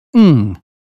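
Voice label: British phonetics sound sing; 英语音标发音（剑桥版）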